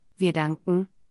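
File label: pronunciation wir danken, German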